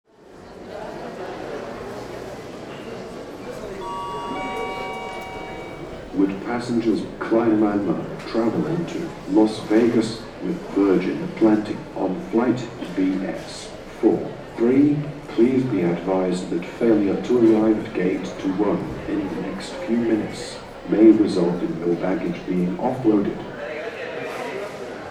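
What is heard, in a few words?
Airport ambience interior perspective boarding announcement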